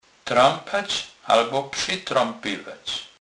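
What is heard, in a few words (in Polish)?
"trempac", Kurpie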